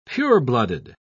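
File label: "pureblooded", British English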